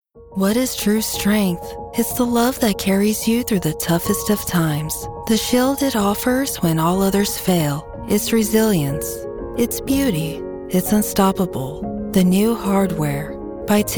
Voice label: commercial